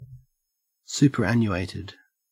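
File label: "superannuated", Australian English; pronunciations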